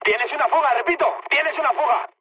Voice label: tank damaged, mood high, speech; War Thunder